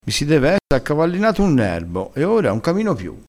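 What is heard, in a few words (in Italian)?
"nerbo", dialettale crespinese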